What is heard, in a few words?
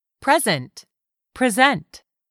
"present", english; 3000 words